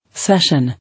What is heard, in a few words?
"Session", English